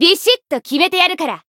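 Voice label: variable chant, Japanese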